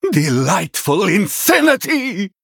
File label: Male Venthyr Attack